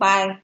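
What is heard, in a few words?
"kpai", Nigerian Pidgin, female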